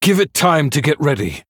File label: Male Human ERROR SUMMON SICKNESS